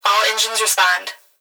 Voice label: Emergency Human Female Voice Fire Dispatch All Engines Respond; emergency effects